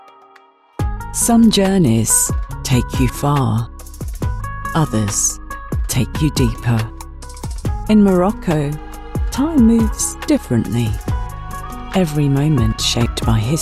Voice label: TV ad showreel